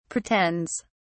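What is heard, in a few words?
"pretends", British English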